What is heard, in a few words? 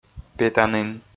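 "петанін", Komi, male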